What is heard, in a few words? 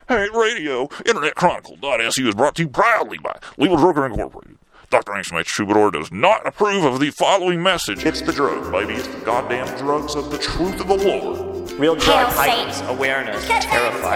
commercial; Extra Strength Terrormax PM